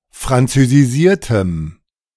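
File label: "französisiertem", German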